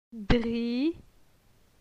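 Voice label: "Dri", French; phonetique; la syllabe i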